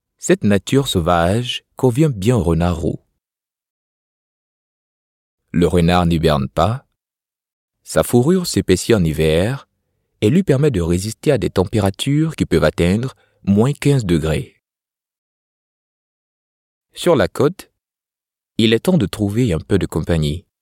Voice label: African French voice documentary, eLearning voice over sample